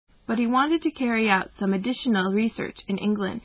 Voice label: M